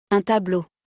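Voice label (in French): "Un tableau", French; vocabulaire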